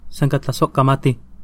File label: thanks nahuatl